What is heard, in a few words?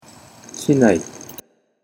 "shinai", Japanese